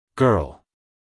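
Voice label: "girl", American English, male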